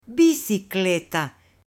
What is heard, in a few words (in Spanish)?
BICICLETA, M